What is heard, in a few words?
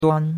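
"duan1", Chinese; Cours-de-Chinois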